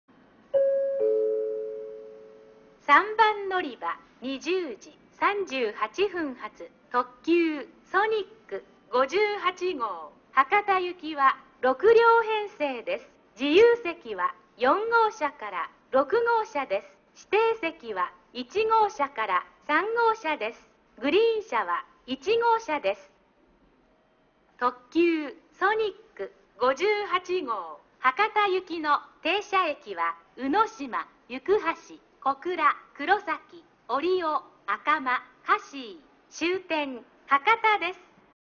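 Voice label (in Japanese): 3番のりば案内放送, 中津駅; ソニック58号 博多行き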